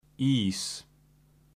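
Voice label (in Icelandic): "is", Icelandic; matarhefti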